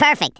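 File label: "perfect" spoken, Redneck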